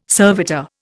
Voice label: "servitor", Indian

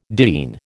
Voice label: "diene", American

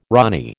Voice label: "rani", American

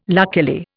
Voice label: "luckily", Indian

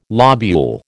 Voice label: "lobule", American

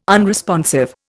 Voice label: "unresponsive", Indian

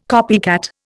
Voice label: "copycat", Indian

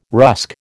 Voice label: "rusk", American

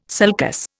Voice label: "sulcus", Indian

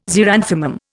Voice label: "xeranthemum", Indian

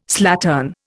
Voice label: "slattern", Indian

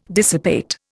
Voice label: "dissipate", Indian

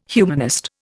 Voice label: "humanist", Indian

